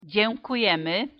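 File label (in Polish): "dziękujemy", Polish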